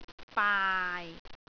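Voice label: "baai6", Cantonese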